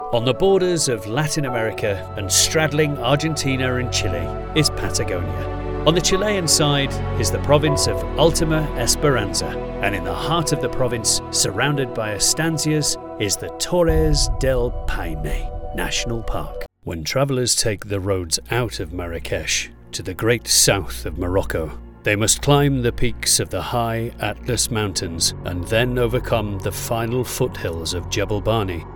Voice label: Narration

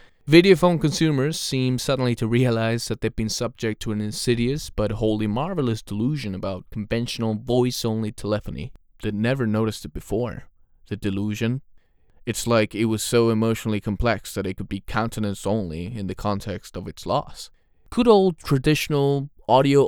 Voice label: NARRATION

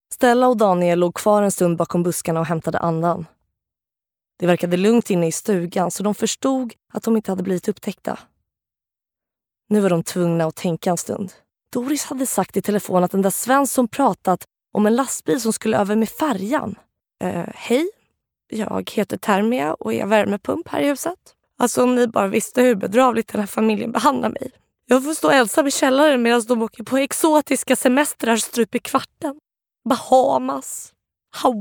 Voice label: Swedish Narration

